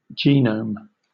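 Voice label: "genome", British English; pronunciations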